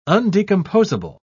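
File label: "undecomposable", British English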